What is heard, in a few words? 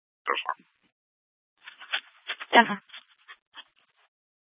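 Police Department North Dispatch, scanner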